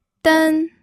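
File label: "den", pinyin, first tone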